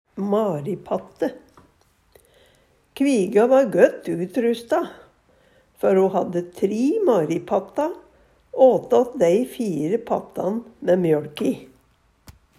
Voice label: maripatte, Numedalsmål

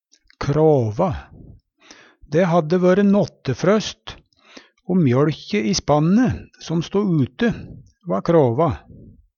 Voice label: krava, Numedalsmål

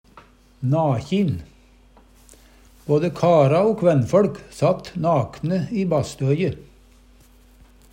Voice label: nakjin, Numedalsmål; Både kara o kvennføLk sat nakne i ba'støje.; Adjektiv

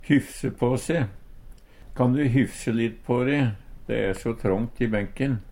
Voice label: hyffse pa se, Numedalsmål

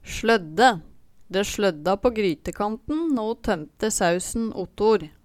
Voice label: "slødde", Numedalsmål; Eksempel på bruk De slødda på grytekanten nå ho tømte sausen otor

